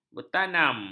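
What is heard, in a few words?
"bertanam", Malay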